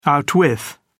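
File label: "outwith", English, British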